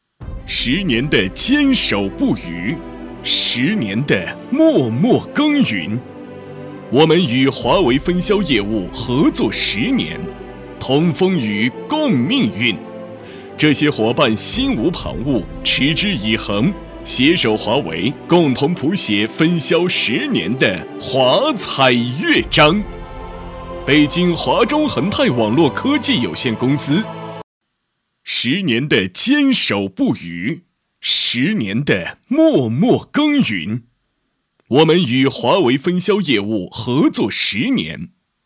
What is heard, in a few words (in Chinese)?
男 颁奖 力度昂扬; 华为分销商; 中英双语